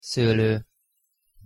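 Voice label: "szolo", Hungarian pronunciation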